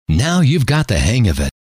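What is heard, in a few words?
now you've got the hang of it, male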